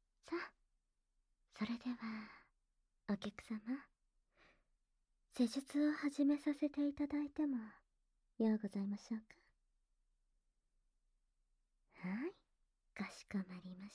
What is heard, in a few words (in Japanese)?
お耳のお掃除, ASMR; それではまずお耳のお掃除を